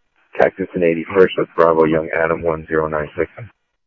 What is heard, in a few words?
Scottsdale Police, scanner audio; H-Scottsdale; SPD - PD2